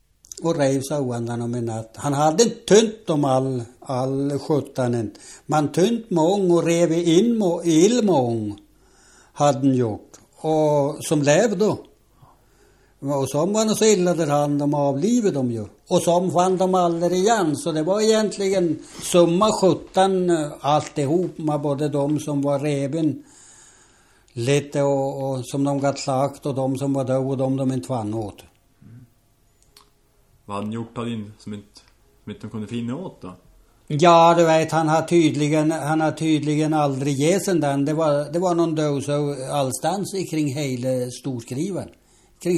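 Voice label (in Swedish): Hotagen Föllinge Jämtland, dialekter; Folkminnen; Svenska sagor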